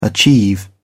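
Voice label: "achieve", British English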